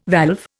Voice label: "valve", Indian